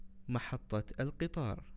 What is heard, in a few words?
train station, arabic words audio